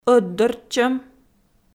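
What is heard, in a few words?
"ӧтдортчӧм" Komi, female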